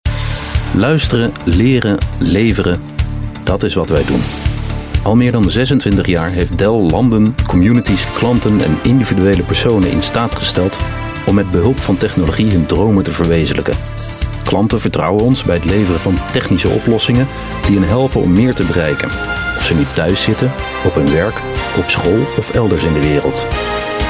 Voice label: Dutch Narration; Male

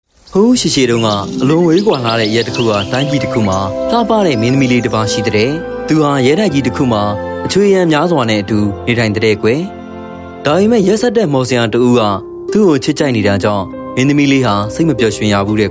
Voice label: Myanmarese, Burmese Female

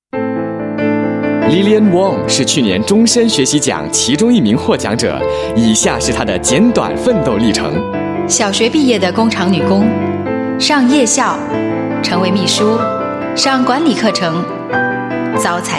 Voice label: Mandarin

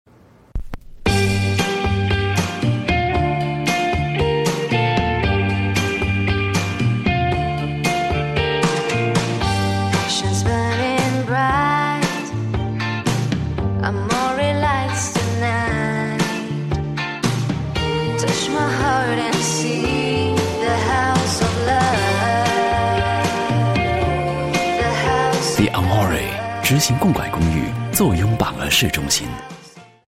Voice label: Mandarin; Amore

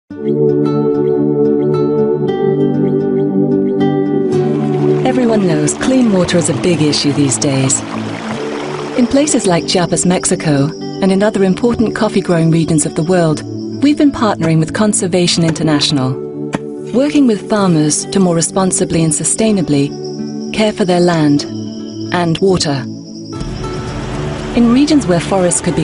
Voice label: UK English NGO Video

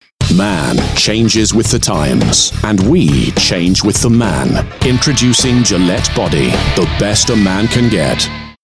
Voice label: UK English tough manly; GILLETTE

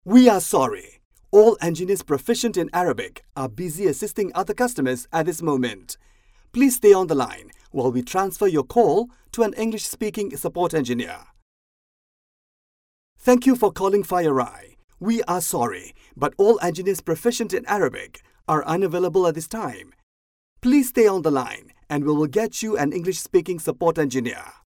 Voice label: Malay English

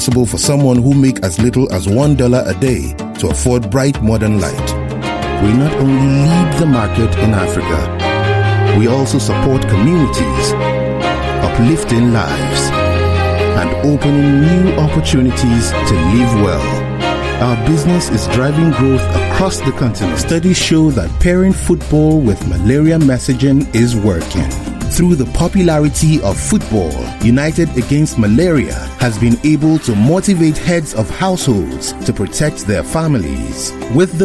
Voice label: English African Narration, African Male

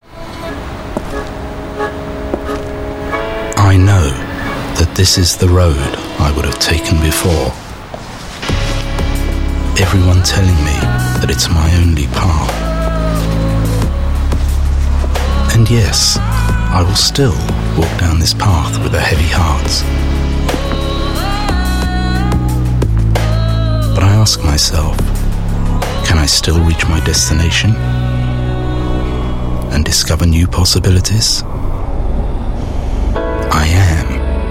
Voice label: UK English VO, Male; Johnnie Walker

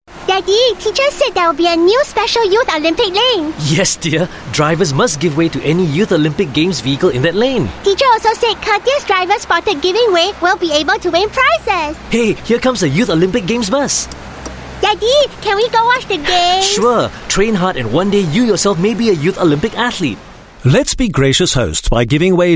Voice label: Asian English little girl; Youth Olympic

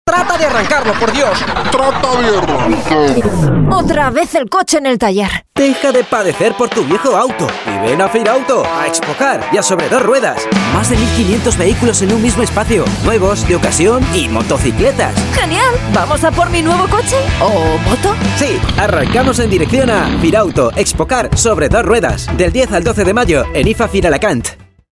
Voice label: European Spanish

